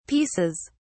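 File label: "pieces", British English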